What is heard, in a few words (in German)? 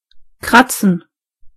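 "scratch", German; gcompris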